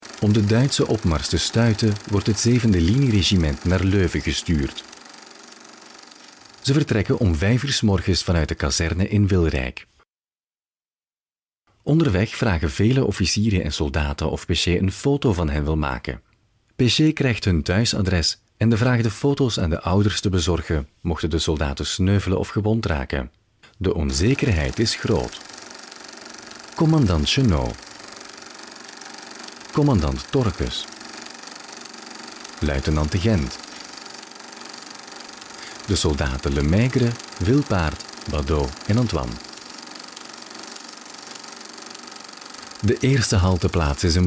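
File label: Flemish M Documentary, male; voice over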